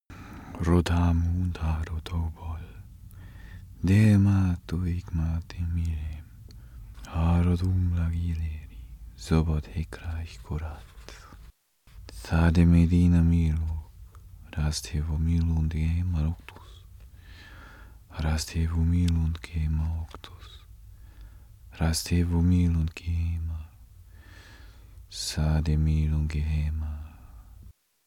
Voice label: male pray